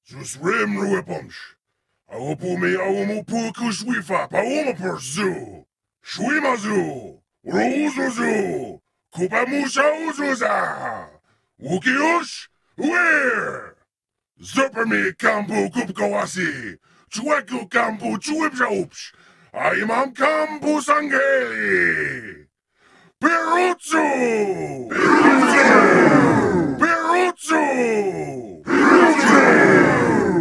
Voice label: Elite speech